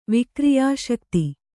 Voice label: "ವಿಕ್ರಿಯಾಶಕ್ತಿ", Kannada